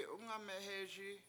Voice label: "Ungameh EE Si", how are you, washo